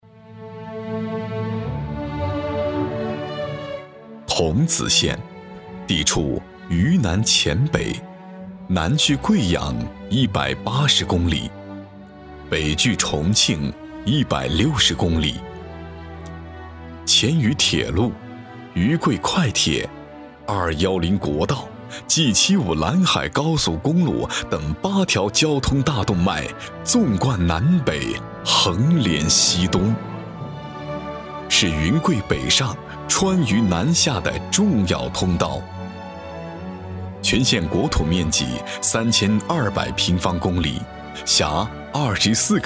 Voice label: 宣传片, 桐梓县, 大气磁性

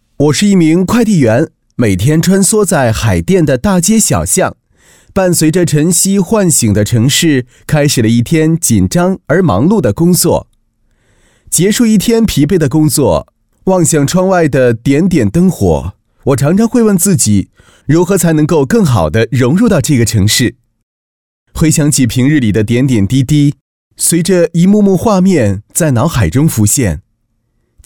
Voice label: 男 专题 旁白自述类, 国语男声配音; 快递员

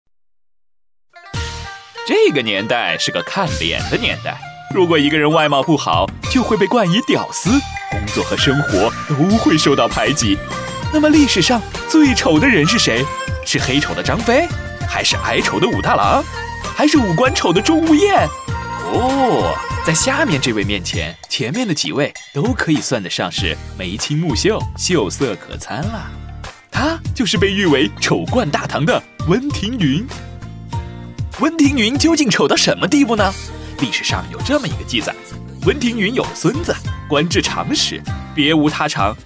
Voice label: 男声 搞笑类 视频节目; 丑冠全唐温庭筠

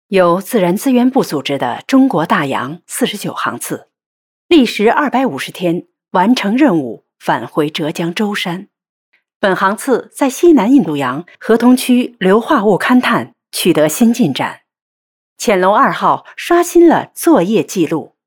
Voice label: 女, 成熟大气

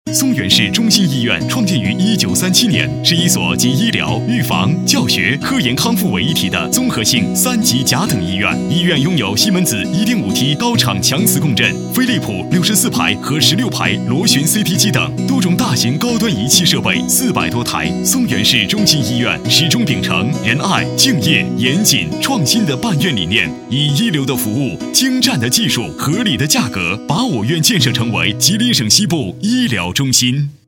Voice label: 男 广告, 国语男声配音; 松原市中心医院

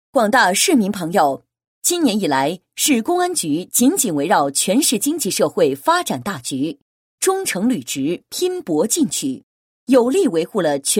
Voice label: 女 公安 誓师大会; “双十”攻坚行动誓师大会

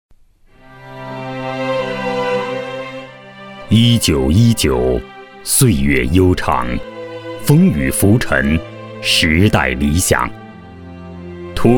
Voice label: 男 历史类纪录片; 五四运动纪念

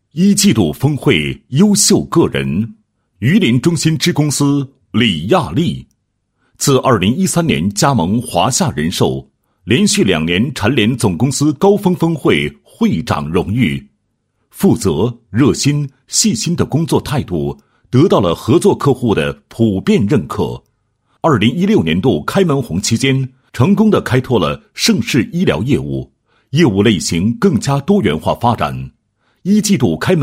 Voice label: 男 颁奖; 华夏人寿2016年第一季度颁奖典礼

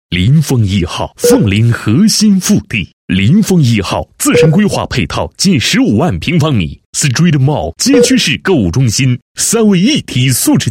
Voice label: 男 房地产广告; 霖峰壹號

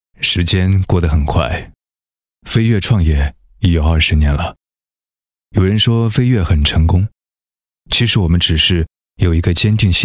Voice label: 男 企业宣传 企业宣传片配音; 中文飞越机电; 内心独白

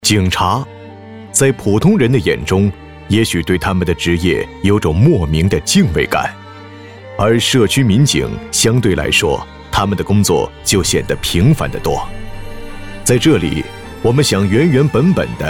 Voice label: 男 专题 人物介绍类; 片儿警老铁

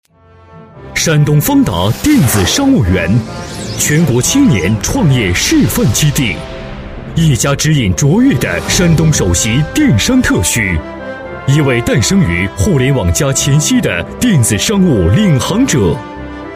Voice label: 男 专题, 国语男声配音; 方达电子商务园

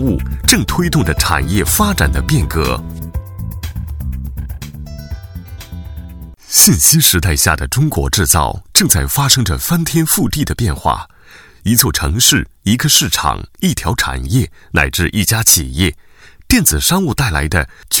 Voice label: 男 专题 信息时代 商务, 国语男声配音

